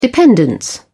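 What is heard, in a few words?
"dependence", British English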